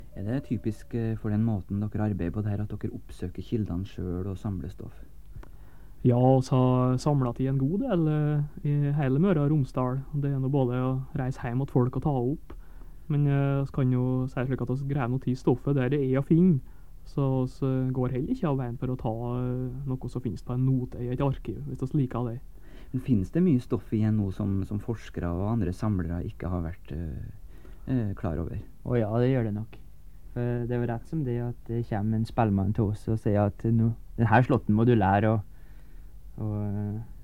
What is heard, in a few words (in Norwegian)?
Tale